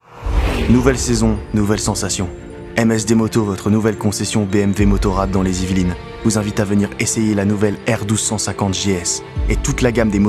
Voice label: French, Male, 20s-30s, voiceover; Based in France

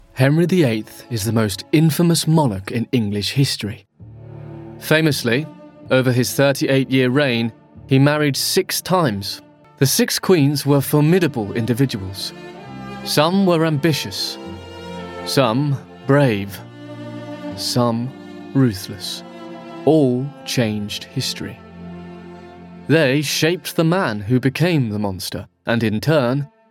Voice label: Narration

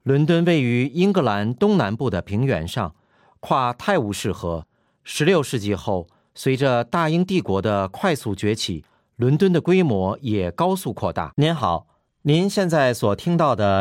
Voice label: Chinese Mandarin, male voiceover; 20s-40s

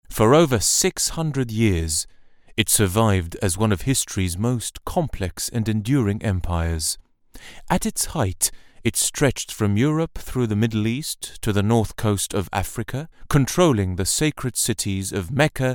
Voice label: Documentary

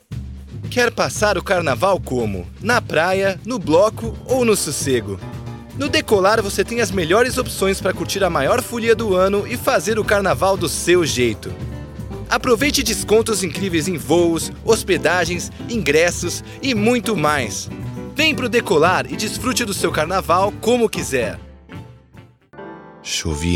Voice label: Portuguese Brazilian; Male, Home Studio, 30s-50s

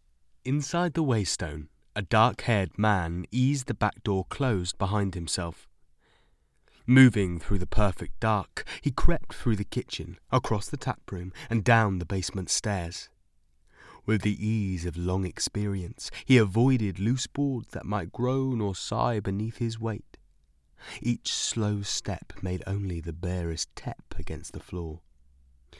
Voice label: Home studio read

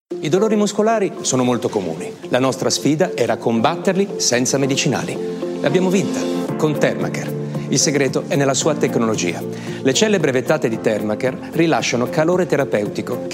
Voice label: Italian

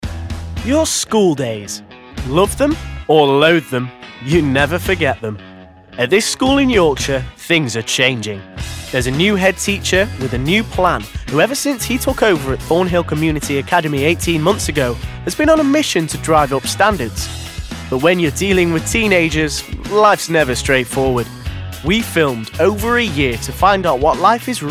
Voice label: Documentary; 20s-30s; Male; Sheffield

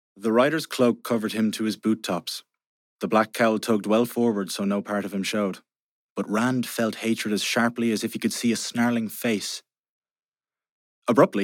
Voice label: Audiobook, 20s

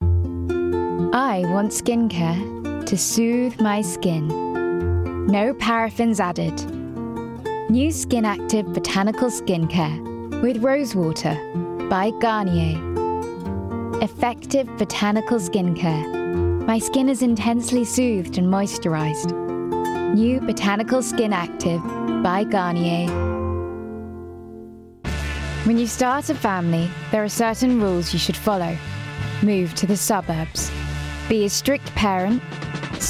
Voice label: Commercials, 20s-30s. Female. RP.

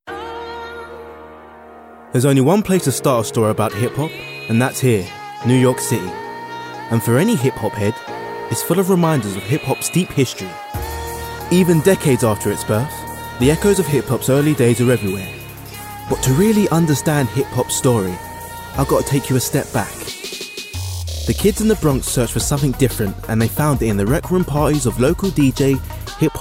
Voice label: Narration

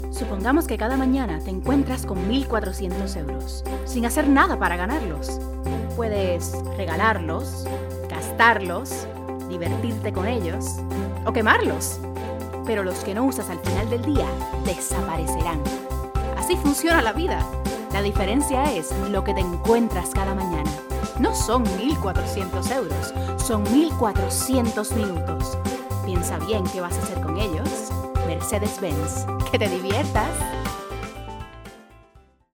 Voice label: Spanish

